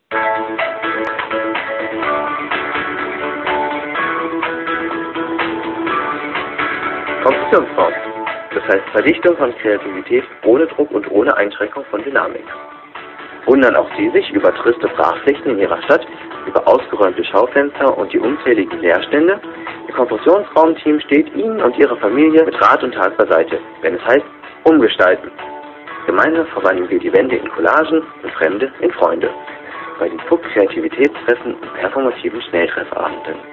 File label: Voicemail